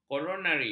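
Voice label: "koronari", Malay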